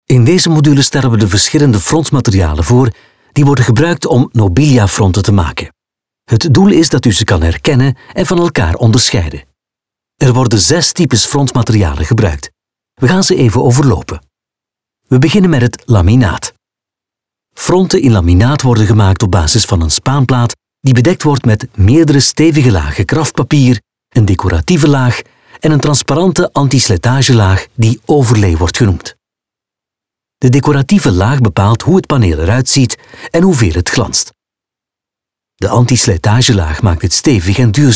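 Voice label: Dutch e-learning Flemish; GoLocalise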